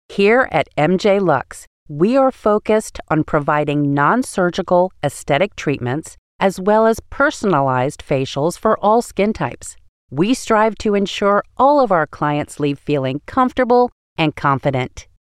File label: On Hold Luxe